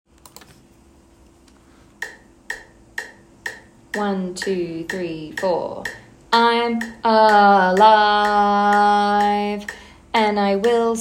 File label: Spoken in rhythm (125bpm); Spoken Recordings; Fame The Musical - Holiday Pack